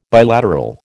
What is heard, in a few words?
"bilateral", American